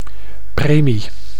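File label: "premie", Dutch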